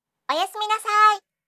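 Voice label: "oyasuminasai", Japanese; aisatsu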